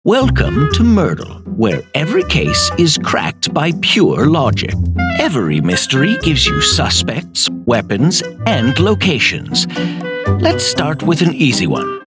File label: english canadian animation